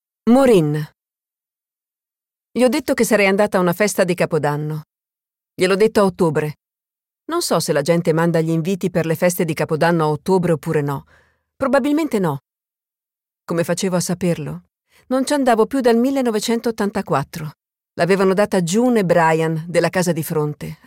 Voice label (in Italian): audiolibro; hornby